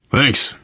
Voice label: thanks, player survivor voice biker

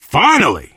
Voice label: safe spot ahead reaction, survivor voice biker

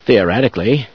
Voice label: "theoretically", scientist; source engine; hl1; video games

